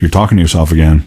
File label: answer, male; Garry's Mod; hl2